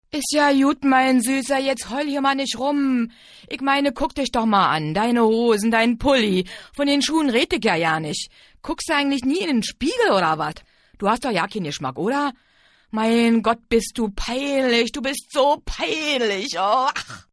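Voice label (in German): synchron dialekt, sprecher; LOFT VOICES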